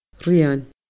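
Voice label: "rian", Scottish Gaelic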